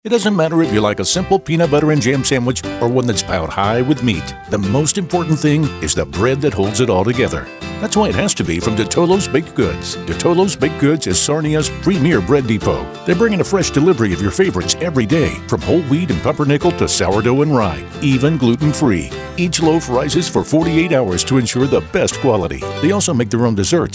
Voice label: commercial